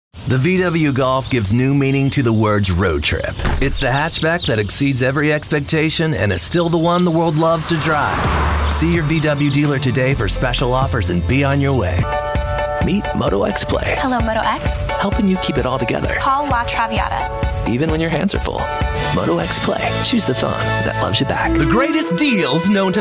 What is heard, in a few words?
Commercial